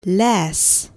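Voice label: "lass", English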